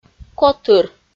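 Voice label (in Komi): "котыр", Komi-Zyrian, female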